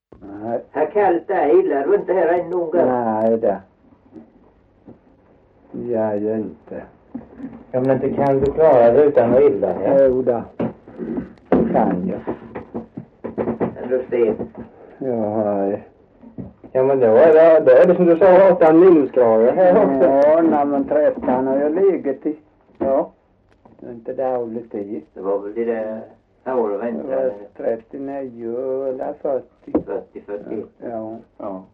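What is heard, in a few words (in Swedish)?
Torhamn Blekinge, Svenska sagor dialekter; Folkminnen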